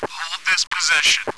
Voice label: position, radio; cstrike